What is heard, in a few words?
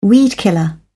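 "weedkiller", British English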